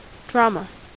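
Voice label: "drama", US English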